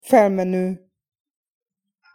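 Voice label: "felmeno", Hungarian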